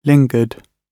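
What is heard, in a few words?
"lingered", British English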